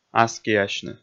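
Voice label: "аскиасьны", Komi, male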